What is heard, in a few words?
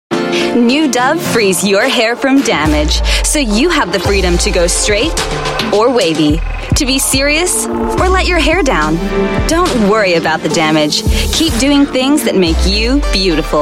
Mid-Atlantic English